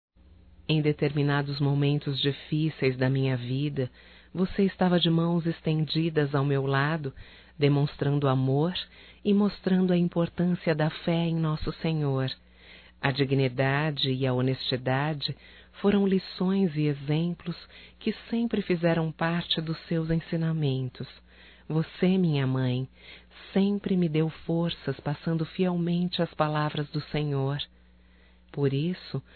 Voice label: EVAN MãE, Telemensagens, Evangelico, fem; Telemensagens 2017

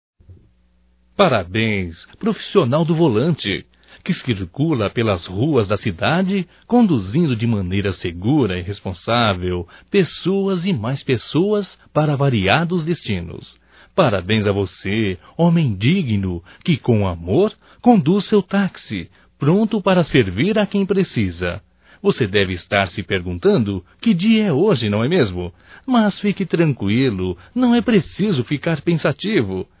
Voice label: HOMENAGEM AO MOTORISTA DE TAXI, Só Voz, masc; Telemensagens; HOMENAGENS

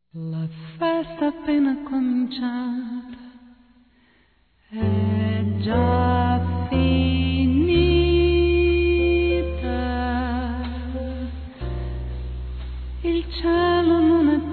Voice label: Casamento de Mãe, Femin; Telemensagens; Telemensagens 2017

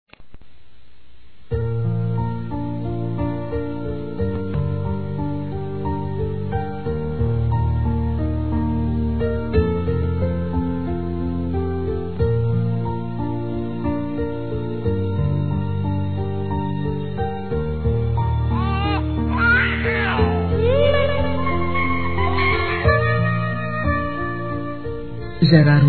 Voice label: Felicitações à Mamãe Feminino; Telemensagens; Telemensagens 2017

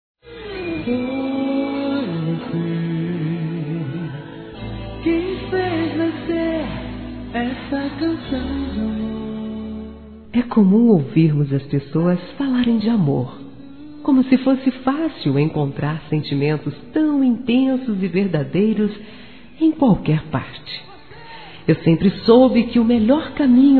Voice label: Aniversário Romantico Feminino; Aniversários; ANIV. Evangelico

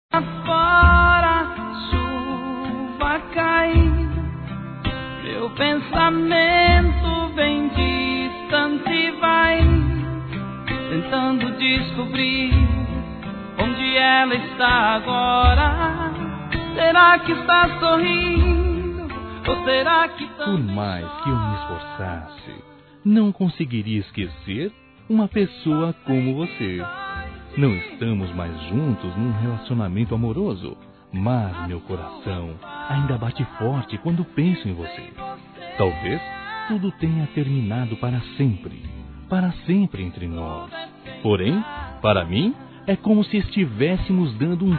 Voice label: ROMANTICA, Telemensagens; PARA EX-NAMORADA; Telemensagens 2017; Românticas

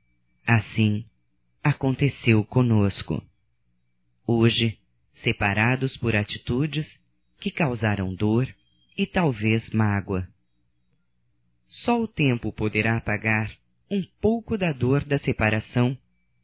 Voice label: MARCANDO ENCONTRO - F, Românticas fem

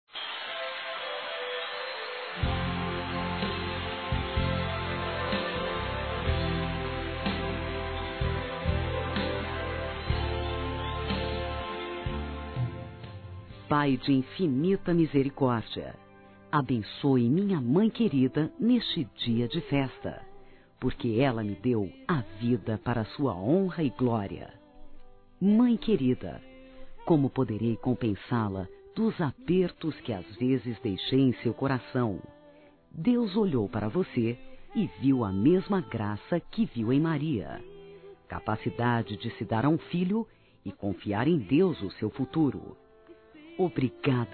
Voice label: Mãe Feminino; Aniversários; ANIV. Evangelico

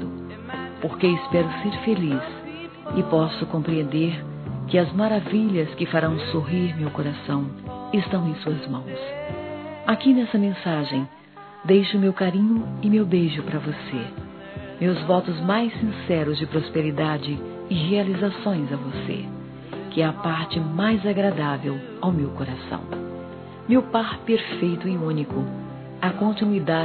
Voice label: ANO NOVO ROMANTIC. F; Telemensagens; Natal e Ano novo; fem

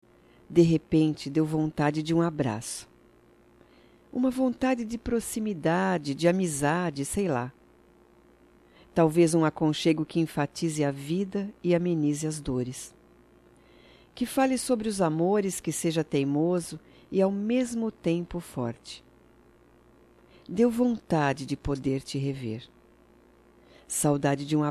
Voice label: DIA DO AMIGO (SAUDADES) F; Datas Mistas; Telemensagens 2017

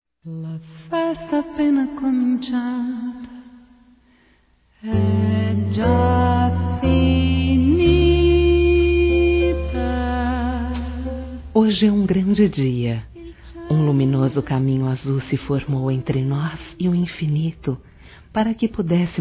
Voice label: Mensagem de Fé f, Telemensagens Gospel fem; Telemensagens 2017

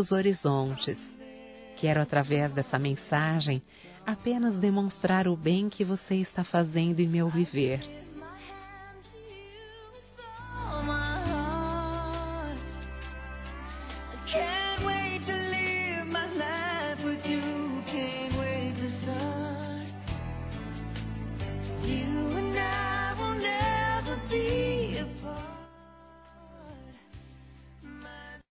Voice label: INICIO DE NAMORO, fem; Telemensagens; Telemensagens 2017

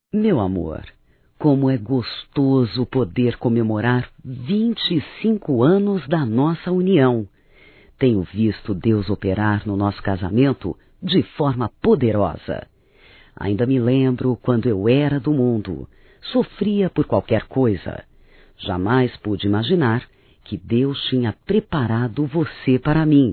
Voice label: BODAS DE PRATA (F), Evangelico; Telemensagens 2017

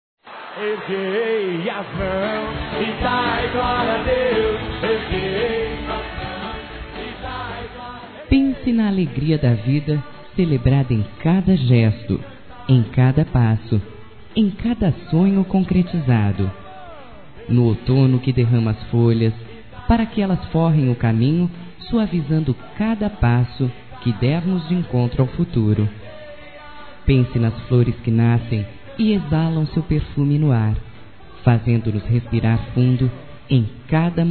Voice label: Reflexão, fem; Telemensagens; Telemensagens 2017

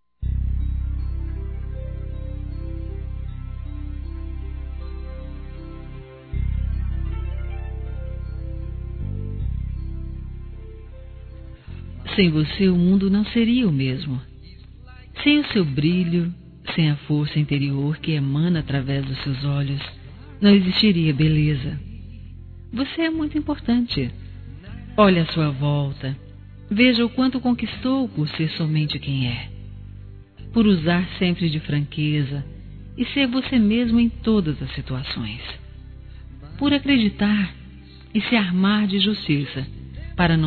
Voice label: Aniversário Tio Feminino

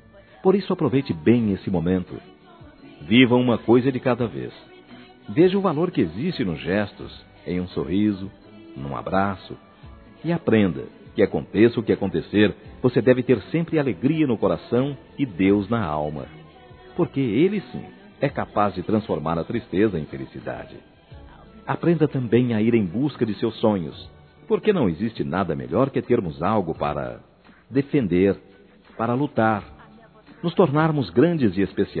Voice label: Aniversário 15 anos Masculino; Telemensagens 2017; Aniversários

